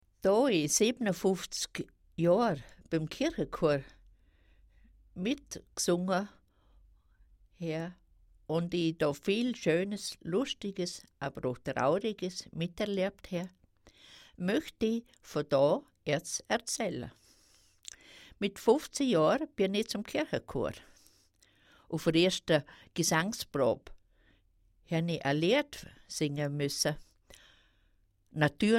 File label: dialekt